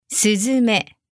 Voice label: "suzume", japanese